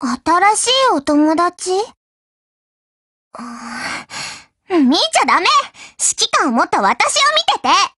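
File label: BUILD OVER Japanese; GF Alarm; UMP45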